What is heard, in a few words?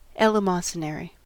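"eleemosynary", US English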